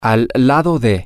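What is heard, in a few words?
next to, Spanish, male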